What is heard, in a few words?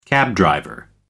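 "cabdriver", British English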